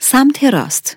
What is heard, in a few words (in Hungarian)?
"jobbra", gps